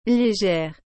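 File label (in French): "légère", French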